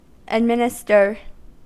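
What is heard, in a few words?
"administer", American English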